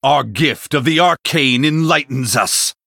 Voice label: Male Blood Elf